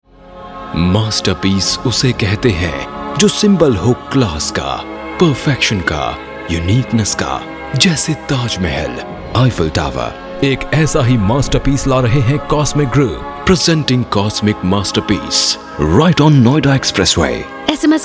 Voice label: Male